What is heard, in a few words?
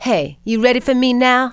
"ready now", female; kingpin; yolanda